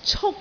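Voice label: "cuk1", Cantonese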